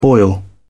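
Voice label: "boil over", British English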